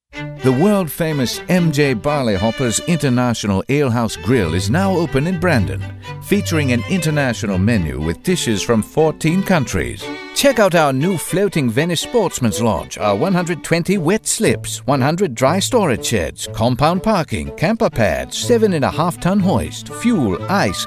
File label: British Accent